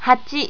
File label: "hachi", japanese